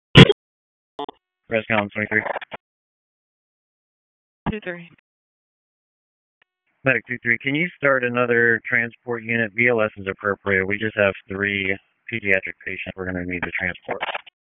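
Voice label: EMS Dispatch, p25; wake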